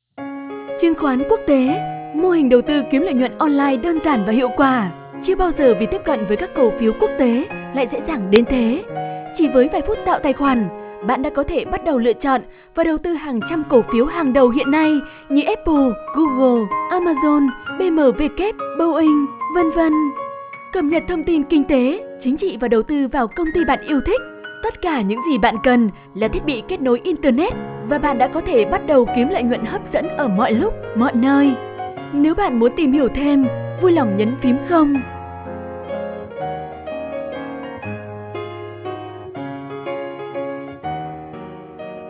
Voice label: Auto call demo; Chung Khoan